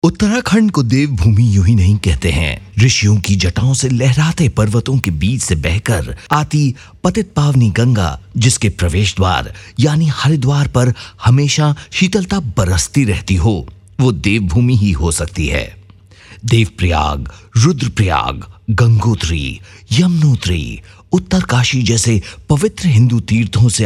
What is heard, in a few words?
hindi narration